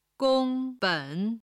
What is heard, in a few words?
"gong1ben3", chinese